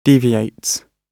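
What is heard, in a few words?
"deviates", British English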